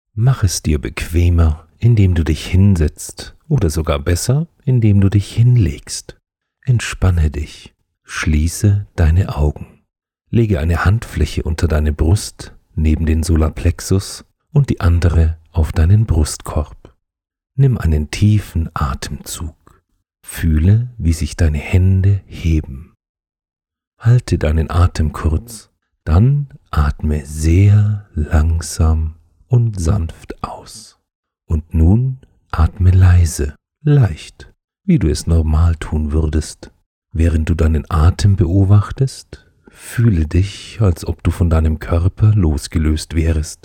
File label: Meditation; LOFT VOICES